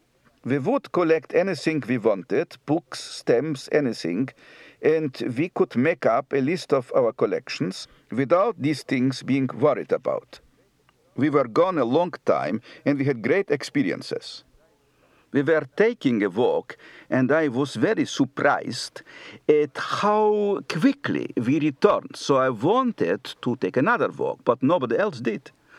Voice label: Czech And Slovak, Accent